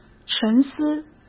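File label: "沉思", Mandarin Chinese; hsk; chinese-flashcards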